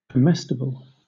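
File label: "comestible", British English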